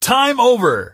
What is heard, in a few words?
Time Over, announcer male